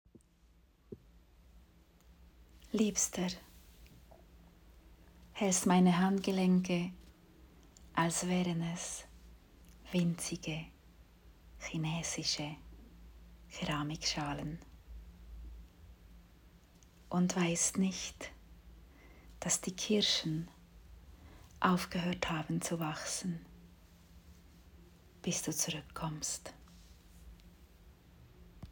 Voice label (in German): Deutsch